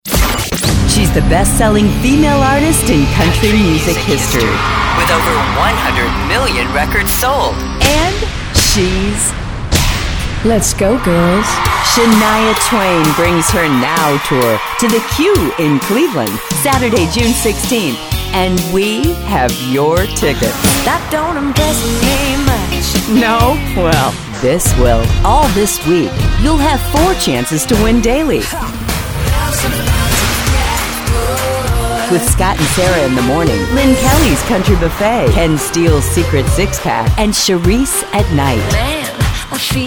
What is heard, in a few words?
Concert Promo